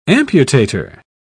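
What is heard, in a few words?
"amputator", British English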